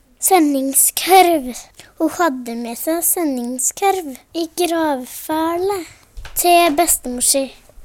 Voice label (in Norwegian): "senningskorv", Numedalsmål; Hushald, mat, drikke